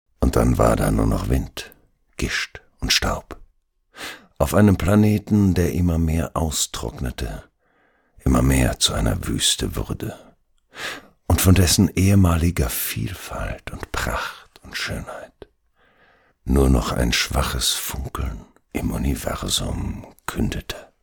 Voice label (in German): Hoerbuch SF Epilog